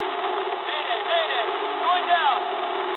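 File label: English aircraft destroyed mood med; War Thunder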